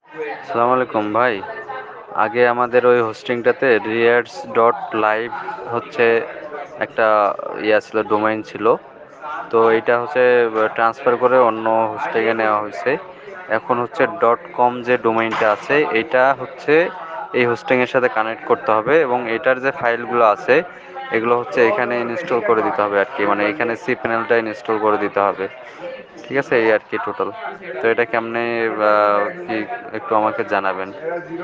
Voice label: voice message